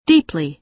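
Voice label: "deeply", American English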